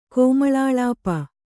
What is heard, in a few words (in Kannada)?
"ಕೋಮಳಾಳಾಪ", Kannada